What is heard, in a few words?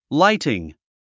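"lighting", English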